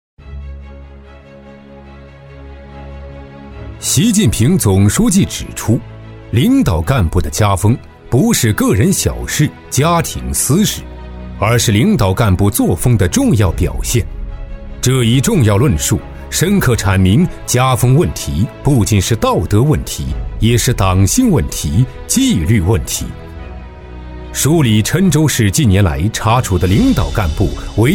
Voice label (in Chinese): 男 党建专题 失守的家风 严肃厚重; 郴州市; 男369-中英双语